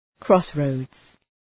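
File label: "crossroads", English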